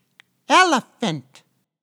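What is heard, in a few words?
"elephant", English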